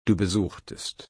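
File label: "besuchen", German, slow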